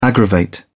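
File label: "aggravate", British English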